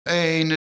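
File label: "eene", Low German, Lower Saxony, male; UNUS; gem nds DE NI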